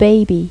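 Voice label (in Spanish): "bebe", Spanish; Pipo-Aprende musica con Pipo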